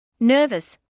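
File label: "nervous" English, British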